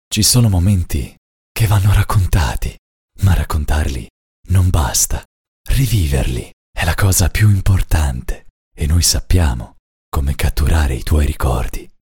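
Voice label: epic deep voice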